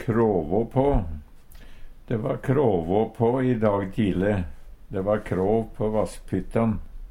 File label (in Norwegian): kråvå på, Numedalsmål; Verb; Uttrykk